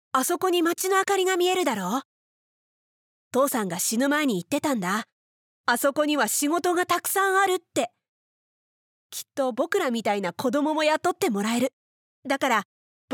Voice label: female